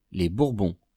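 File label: "les bourbons", French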